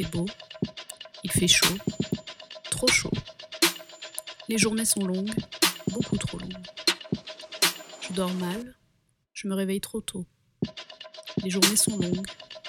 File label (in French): Podcast voix